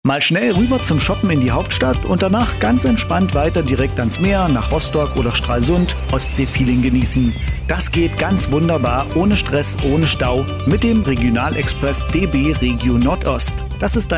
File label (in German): Werbung Image Sprecher